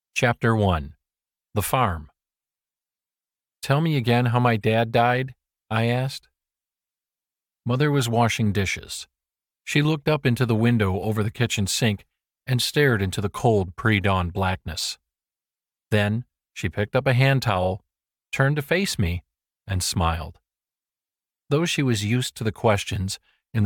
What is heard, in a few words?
Audiobook; Reel